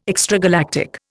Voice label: "extragalactic", Indian